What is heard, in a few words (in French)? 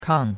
"kang4", chinois; Cours-de-Chinois